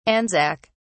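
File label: "anzac", British English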